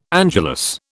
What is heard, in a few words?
"angelus", British